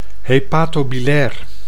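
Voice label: "hepatobilair", Dutch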